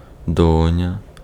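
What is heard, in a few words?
Ukrainian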